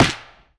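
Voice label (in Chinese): "给" Chinese, mahjong; 欢乐麻将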